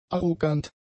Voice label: "arrogant", German; adjectives